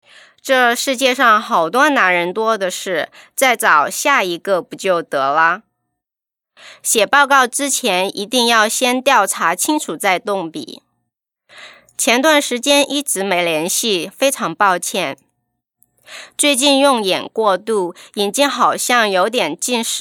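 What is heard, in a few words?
chinese mandarin female narration; voice demos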